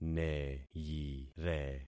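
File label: "neyire", concatenated syllables; Affixing experiment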